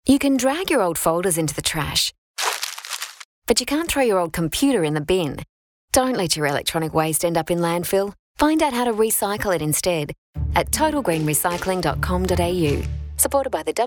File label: radio ad